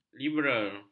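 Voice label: "liberal", Malay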